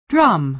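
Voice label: "drum", American English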